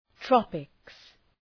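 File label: "tropics", English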